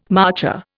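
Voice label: "marcher", Indian English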